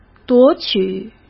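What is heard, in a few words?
"夺取", Mandarin Chinese; hsk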